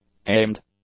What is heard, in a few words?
"aimed", English; adjectives